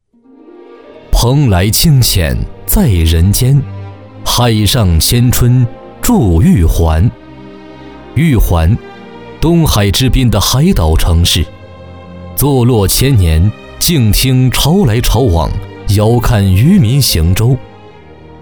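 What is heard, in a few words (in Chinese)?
国语 男声 宣传片-大气有感情 大气浑厚磁性 沉稳 娓娓道来; 解说-河南省广东商会解说词; 玉环市创建省级生态文明建设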